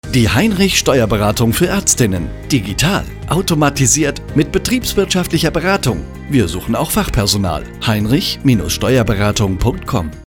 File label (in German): funkspot; heinrich steuerberatung